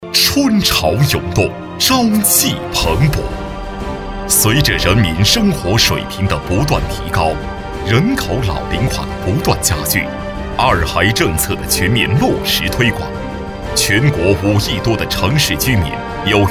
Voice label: 专题 政府 计划生育 厚重, 男国

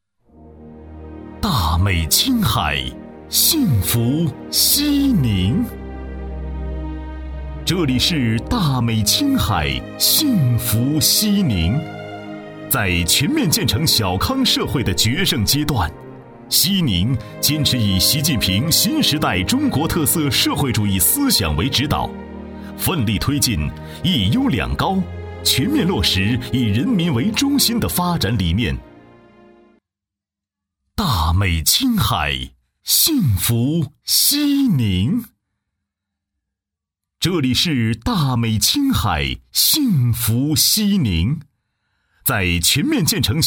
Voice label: 男国 宣传片 城市 抒情; 西宁

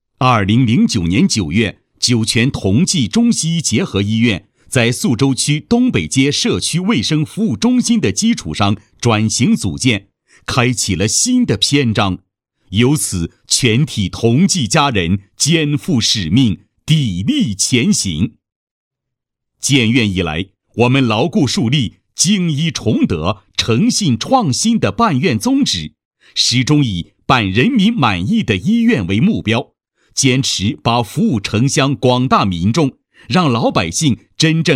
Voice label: 男国 专题 医院 沉稳; 酒泉同济康复工作

